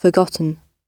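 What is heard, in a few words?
"forgotten", British English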